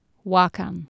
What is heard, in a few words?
"wacan", Anglo Saxon